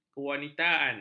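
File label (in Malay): "kewanitaan", Malay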